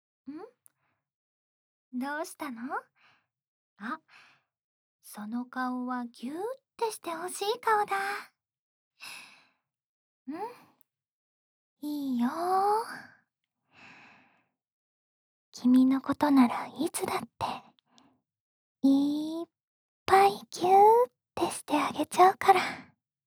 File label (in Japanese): 幼稚園児扱い甘々セリフ